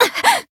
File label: damaged jp; niike